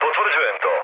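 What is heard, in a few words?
Serbian player killed enemy mood med, speech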